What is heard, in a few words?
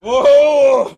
"woah ho", Voice